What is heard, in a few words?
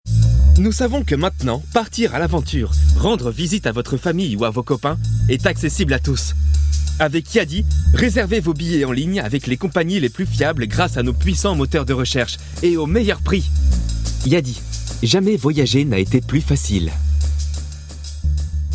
male french hotel comercial; ideasonora